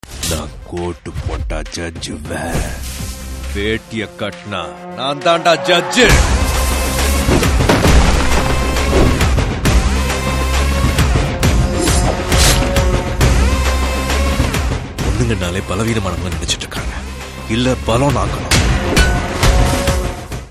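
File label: Dialogue with BGM; Etharkkum Thunindhavan; Suriya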